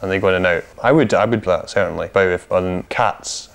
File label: an dey goddano serly plawid cats, Voice gibbrish